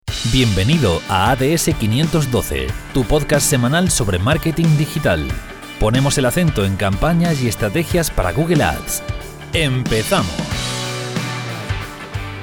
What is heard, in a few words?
intro podcast